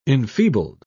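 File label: "enfeebled", British English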